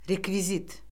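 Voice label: "rekvizit", Azerbaijani; Orfoqrafiya Lüğəti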